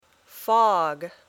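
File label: "fog", English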